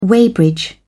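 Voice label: "weighbridge", British English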